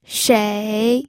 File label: "shei3", pinyin